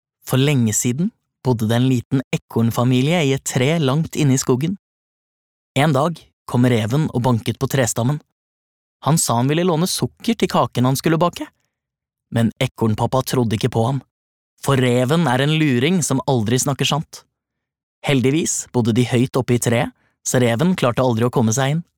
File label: no childrens book